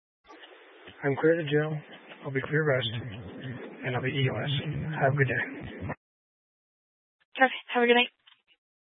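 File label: Sheriff Dispatch, scanner audio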